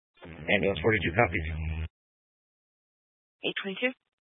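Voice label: Fire Dispatch, Scanner audio recording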